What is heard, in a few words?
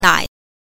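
"nai", Mandarin Chinese pinyin, neutral tone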